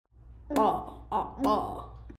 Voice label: Voiced labial-velar implosive